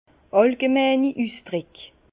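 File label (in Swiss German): "allgemeini", Alsace Bas Rhin; vin; expressions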